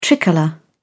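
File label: "tricolour", British English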